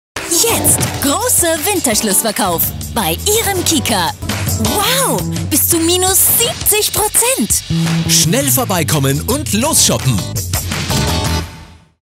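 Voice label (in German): Sprecherdemo, TV-Werbung; KIKA 70Prozent; Hörbeispiele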